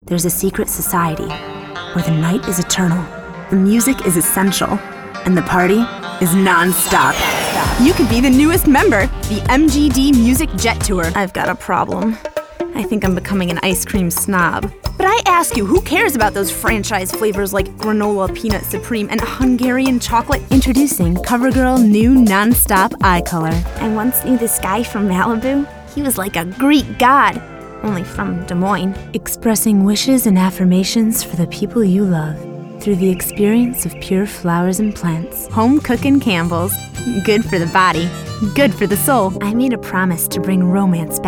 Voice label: Voiceover, Commercial, Women; Chicago